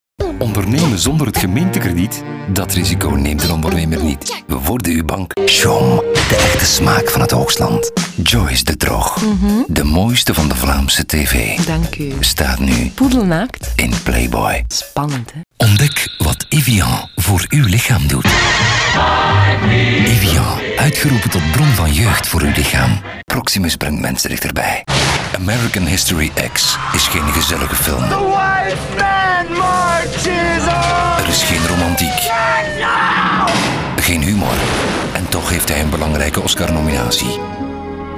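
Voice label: flemish voice, Belgian/Flemish voice overs, Male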